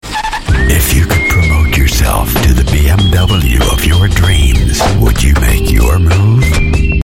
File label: US English TV commercial; BMW